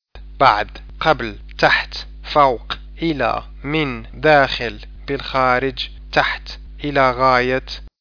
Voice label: arabic; words